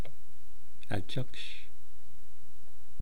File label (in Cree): "achahkush", eastcree